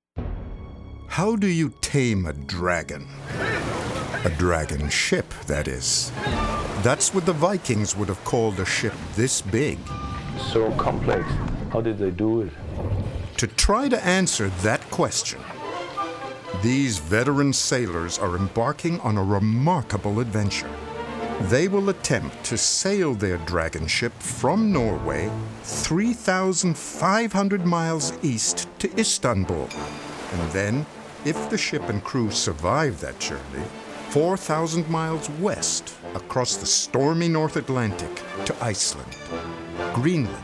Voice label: narration; vikings